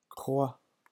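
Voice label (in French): "croix", French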